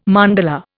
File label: "mandala", Indian